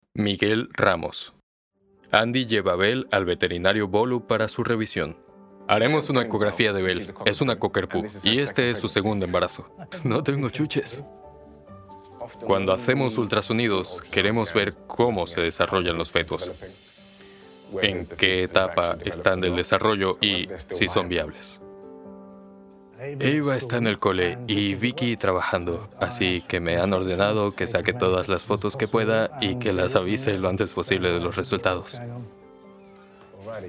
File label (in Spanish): Documental